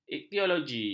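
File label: "iktiologi", Malay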